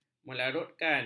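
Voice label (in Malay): "melarutkan", Malay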